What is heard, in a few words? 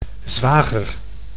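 "zwager", Dutch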